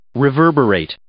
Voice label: "reverberate", English